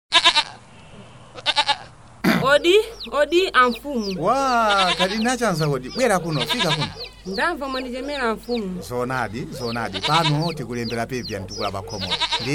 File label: Radio Jingle; KPWG; Sena Version